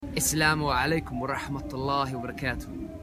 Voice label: arabic greetings how to say the full arabic greeting